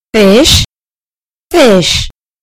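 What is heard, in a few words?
"fish", British English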